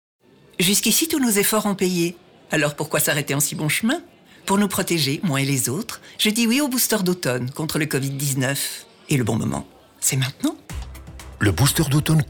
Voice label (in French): Spot radio booster automnal Covid19 FR